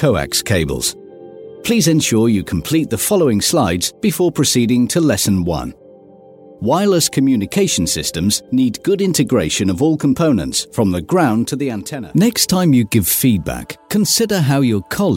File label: e-learning and training